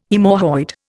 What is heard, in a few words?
"haemorrhoid", Indian